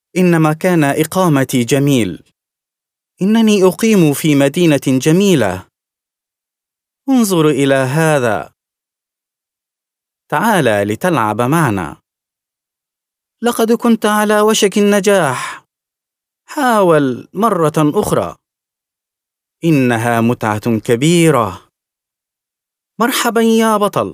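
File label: Arabic male children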